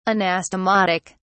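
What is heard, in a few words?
"anastomotic", English, British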